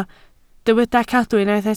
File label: "cadw", Welsh